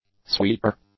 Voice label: "sweeper", english; nouns